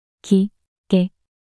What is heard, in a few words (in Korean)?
"기게" 낱소리 여자